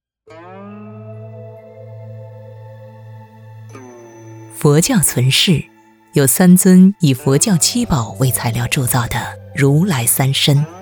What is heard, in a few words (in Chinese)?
女 解说; 佛之三身